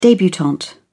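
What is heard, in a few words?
"debutante", English, British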